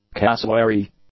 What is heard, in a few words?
"cassowary", English; nouns